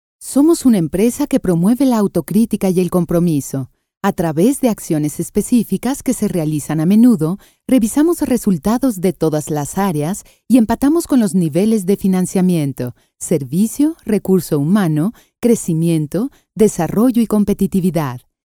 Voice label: locutora mexicano mexican voiceover